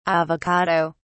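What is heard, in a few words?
"avocado (s)", British English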